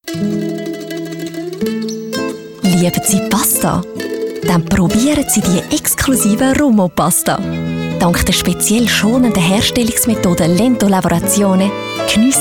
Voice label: Schweiz W

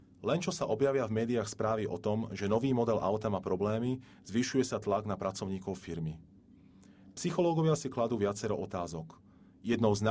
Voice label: Slowakei M

